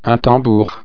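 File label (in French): "tambour", French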